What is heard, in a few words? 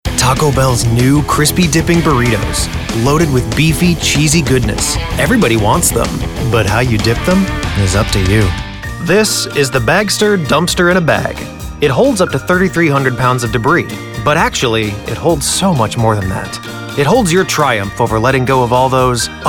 commercial